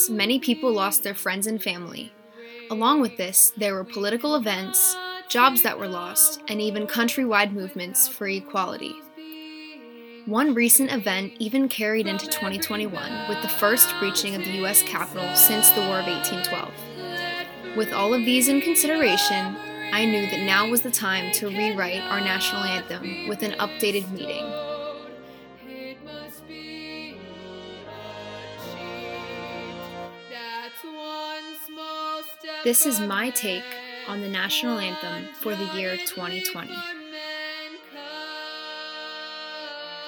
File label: voice over